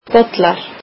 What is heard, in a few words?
icelandic cups